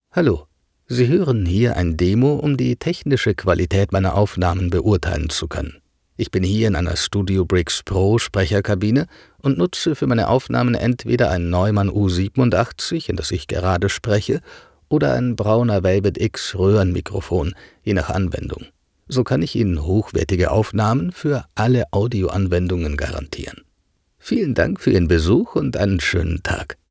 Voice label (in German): allgemeines studiodemo; Muttersprache Deutsch